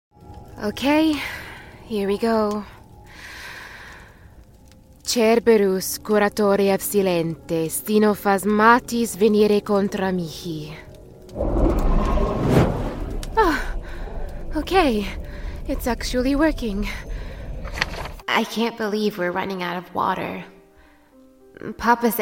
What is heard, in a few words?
Audio Drama